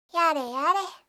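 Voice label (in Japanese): "yareyare", Japanese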